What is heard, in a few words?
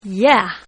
Woman Yeah; Exclamations; Voice; Partners In Rhyme